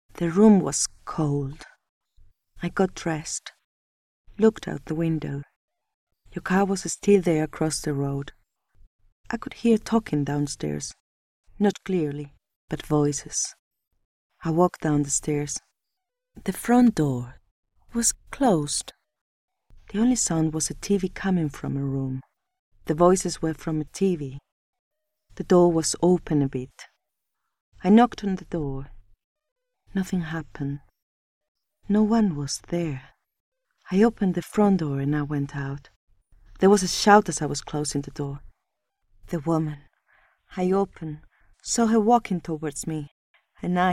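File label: Spanish Europe Accented English; GoLocalise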